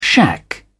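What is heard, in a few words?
"shack", British English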